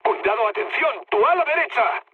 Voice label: right wing, mood high, speech; War Thunder